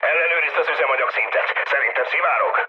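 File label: tank damaged, mood med, Hungarian; War Thunder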